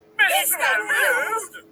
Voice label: angry heads shouting; mr rude

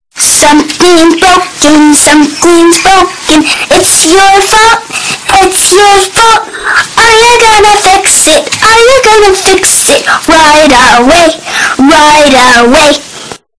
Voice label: somethings broken distorted

